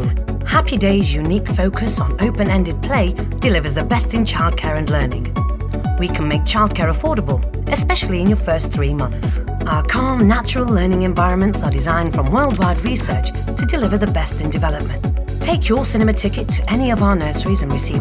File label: voiceover with music